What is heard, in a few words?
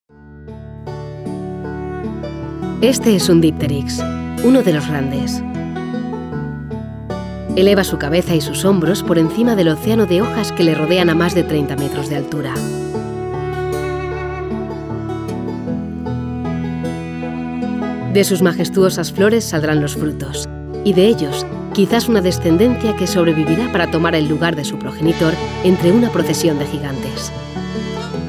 Documentary